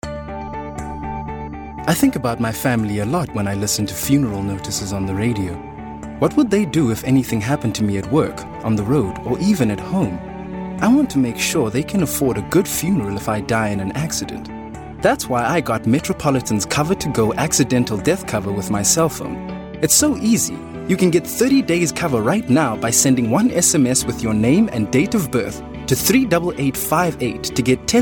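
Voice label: South African English, male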